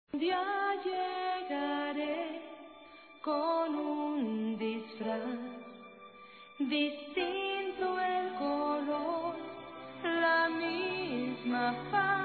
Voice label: ANIV. ROMANTICO M

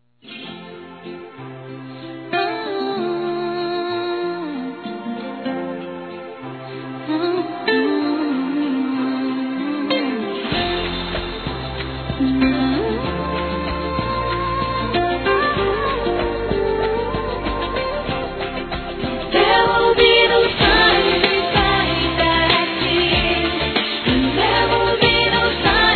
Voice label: Formatura Masculino; Para Filha; Telemensagens 2017; Formaturas

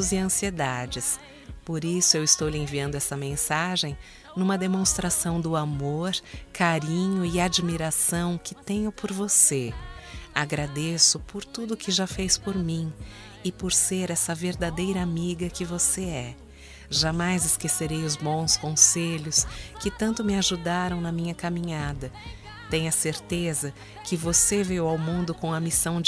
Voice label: ANIV TIA(F); Telemensagens 2017; Aniversários; Aniv Familiar

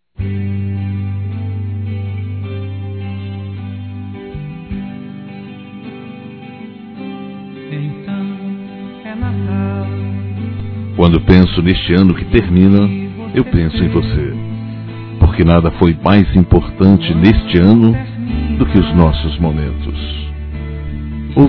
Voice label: Natal Romântico Esposa Masculino; Telemensagens; Natal e Ano novo

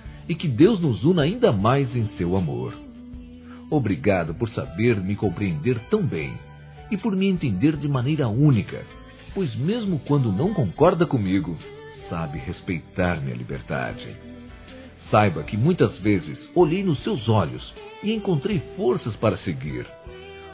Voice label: IRMÃO M; Aniversários; Evangelico

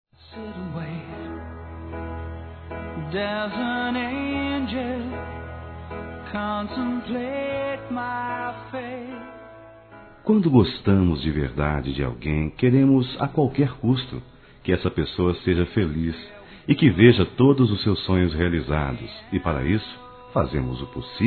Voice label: BOAS FÉRIAS ROMANTICA, masc; Telemensagens; Despedida Boas Vindas; Telemensagens 2017

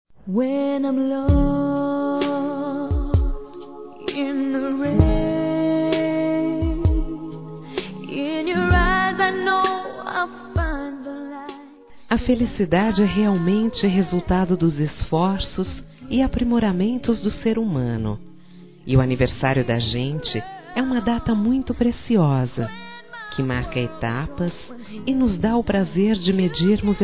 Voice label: NEUTRO (F)

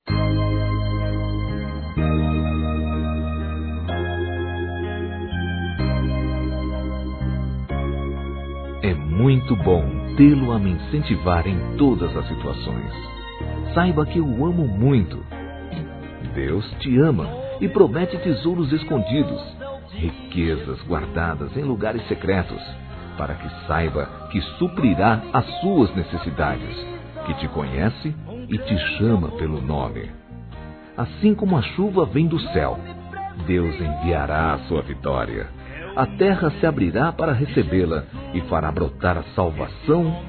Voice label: HOMENAGEM AO PAI M, Telemensagens, Gospel, masc

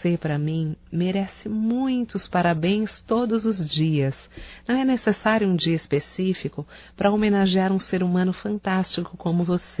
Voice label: Aniversário Feminino, Telemensagens; Aniversário Atrasado Amiga Feminino

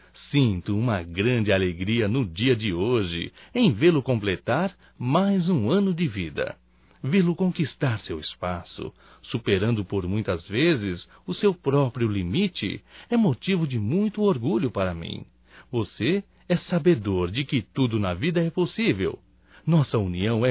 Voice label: ANIV FILHO M, Telemensagens; Telemensagens 2017; Aniversarios

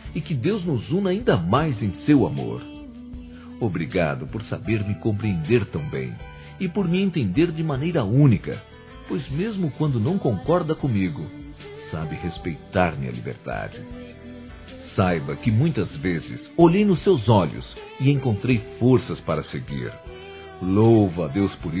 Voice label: IRMÃ M; Aniversários; Evangelico

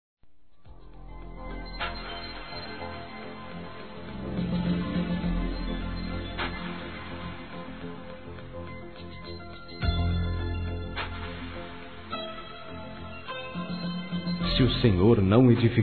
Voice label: RELIGIOSO M; Telemensagens; Natal e Ano novo

